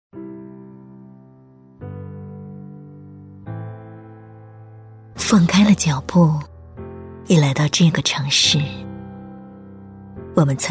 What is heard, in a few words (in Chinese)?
女 广告配音 磁性抒情, 温柔知性; 奶茶的味道